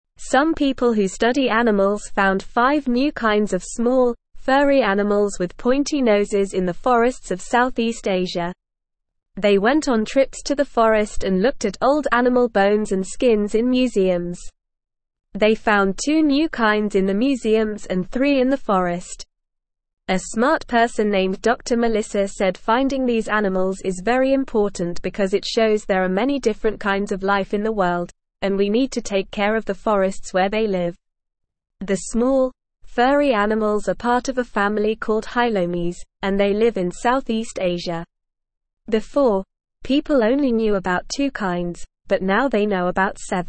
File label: English Beginner NORMAL Reading; English Newsroom